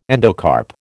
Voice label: "endocarp", American